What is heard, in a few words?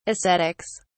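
"ascetics", British English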